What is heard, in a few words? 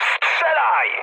attack position mood high, speech pol